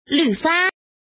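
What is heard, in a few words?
woman, mahjong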